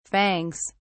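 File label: "fangs", British English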